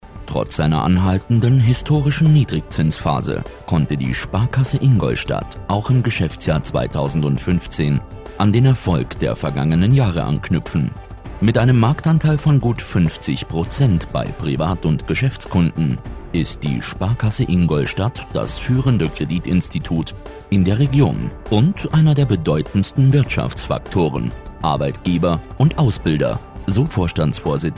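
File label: German, male, narration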